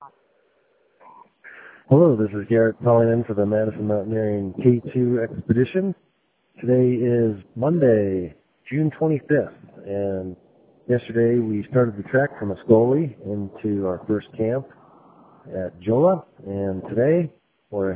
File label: voicemail